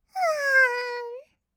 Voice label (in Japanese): "わーん", kawaii voice; ASMR; 色々なワンショット